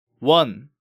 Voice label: "Won", Korean